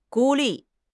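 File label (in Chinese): "孤立", Chinese; HSK6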